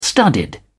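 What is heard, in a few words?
"studded", English, uk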